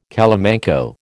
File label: "calamanco", American